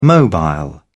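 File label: "mobile", British English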